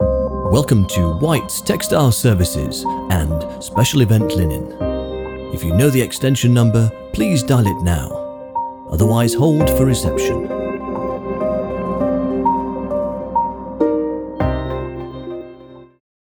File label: On Hold